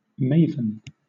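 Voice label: "maven", British English; pronunciations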